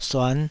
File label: "suan5", taiwanese Syllables